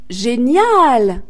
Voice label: "awesome", fr; gcompris

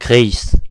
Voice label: "centre", br, france; gcompris

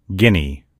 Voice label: "guinea", en_US; gcompris; geography

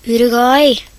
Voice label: uruguay, nb; gcompris; geography

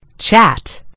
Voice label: "chat", en GB; gcompris

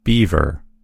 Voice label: "beaver", en US; gcompris

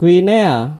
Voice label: "guinea", id; gcompris-data; geography

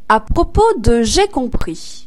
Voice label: "about", fr; gcompris

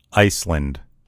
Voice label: "iceland", en_US; gcompris; geography

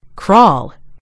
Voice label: "crawl", en GB; gcompris

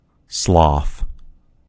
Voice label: "sloth", en US; gcompris

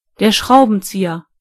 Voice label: screwdriver, de; gcompris

